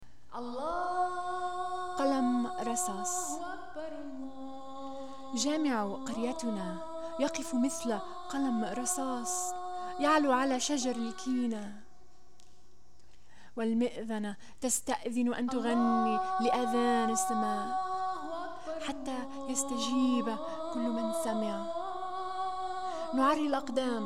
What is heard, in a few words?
Arabic; Pencil